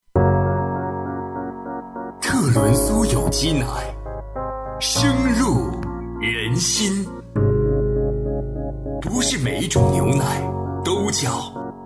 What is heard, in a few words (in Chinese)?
男 片头片尾; 特仑苏; 声入人心